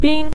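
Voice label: "bin" Mandarin Chinese, neutral tone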